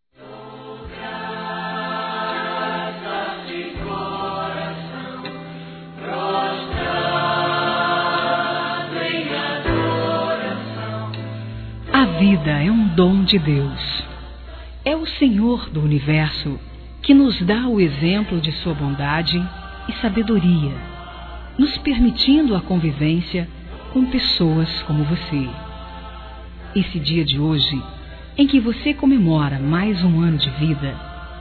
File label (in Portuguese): ANIV. EVANG. GERAL, Gospel, fem; Telemensagens; Telemensagens 2017